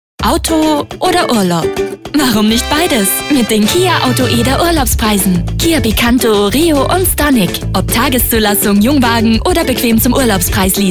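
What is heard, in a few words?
German, Austrian, female; showreel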